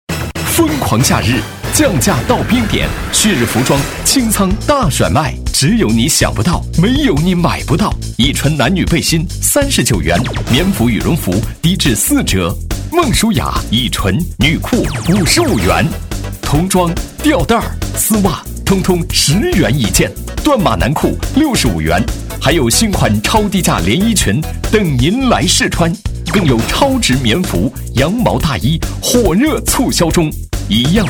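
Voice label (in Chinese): 男国大音; 旭日服装新音乐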